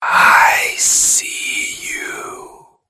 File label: creepy i see you